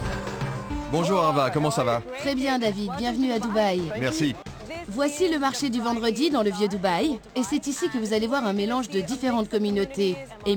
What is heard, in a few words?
Voice Over; Emirates; National Geographic Channel